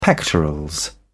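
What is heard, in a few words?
"pectorals", English, British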